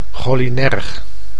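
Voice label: "cholinerg", Dutch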